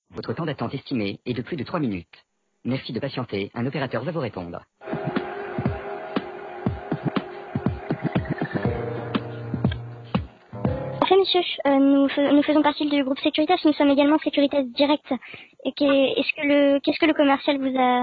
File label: Conversation